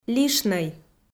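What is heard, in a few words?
"лишнӧй" Komi, female; kpv audio dict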